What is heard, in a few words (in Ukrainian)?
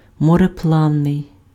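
"мореплавний", Ukrainian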